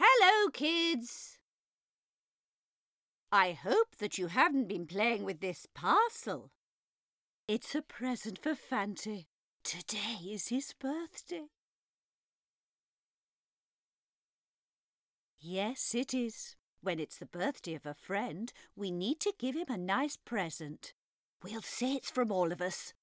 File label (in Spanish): personaje vieja